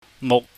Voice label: "muk", Chinese